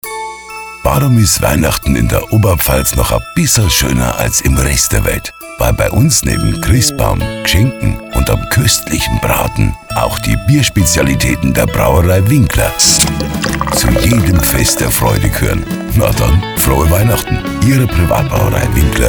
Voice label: bayrisch; brauerei winkler

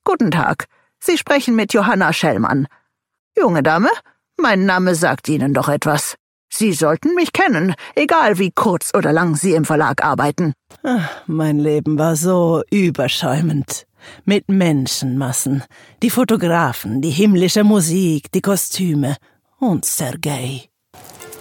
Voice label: weiblich 40 70 voiceacting deutsch; Muttersprache Deutsch, Französisch; Sprecher in Berlin, Dresden, Leipzig, Meißen

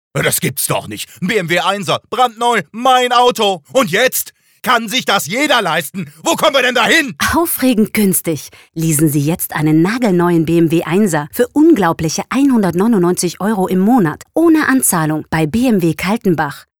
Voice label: werbung funk studio; bmw

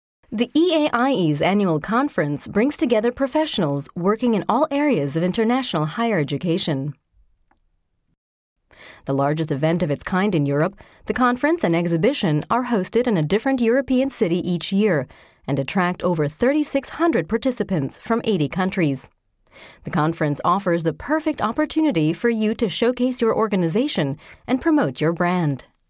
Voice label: englisch short sample

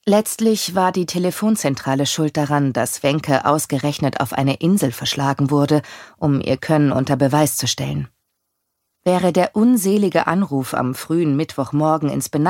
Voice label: hoerbuch; sanddornkoenigin

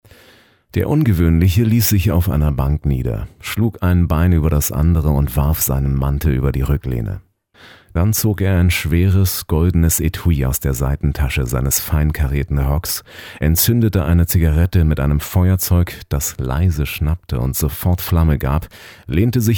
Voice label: hoerbuch

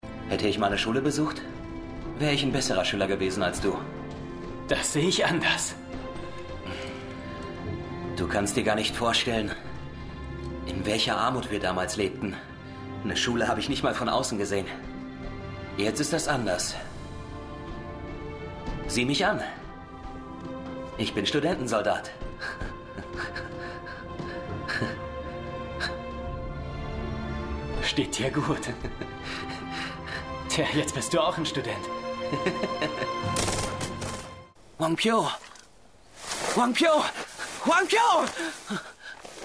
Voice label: synchron; 71 fire 2010

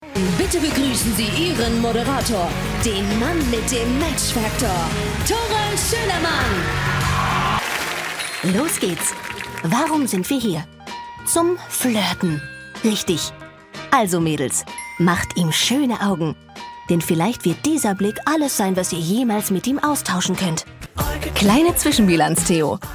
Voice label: off kommentar; pro7 match factor

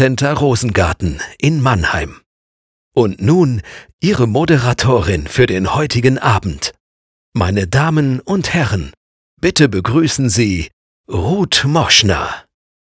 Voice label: Galanacht Ansage; dfontpreis

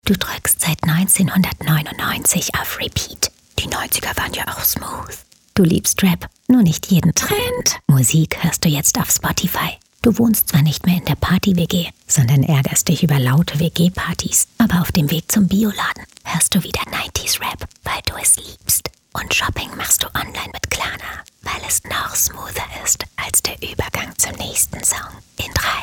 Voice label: geflüstert; klarna

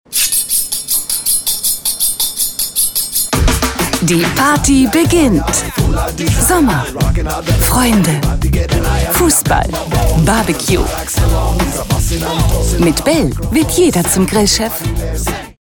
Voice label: werbung

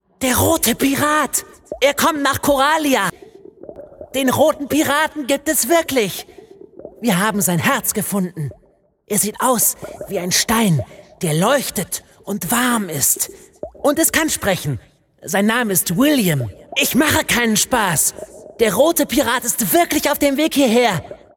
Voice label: trickstimme

